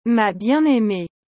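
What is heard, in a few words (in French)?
"ma bien aimée", French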